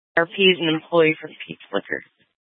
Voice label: Police Dispatch, scanner; Police 1 Dispatch

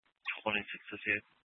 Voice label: Sheriff Dispatch, scanner; Mesa County

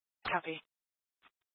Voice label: Fire Department Dispatch, scanner; Grand Junction

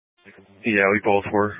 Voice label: Mesa County Sheriff Dispatch

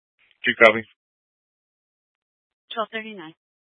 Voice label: Fire Department Dispatch, scanner; Grand Junction

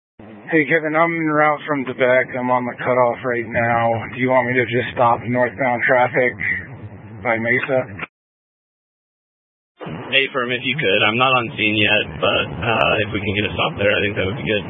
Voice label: Incident Tac, scanner; Incident Tac 1

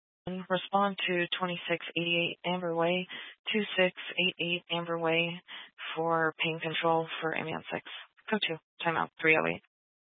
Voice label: Fire Department Dispatch, scanner; Grand Junction

